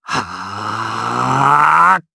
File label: Casting, jp; Siegfried; kingsraid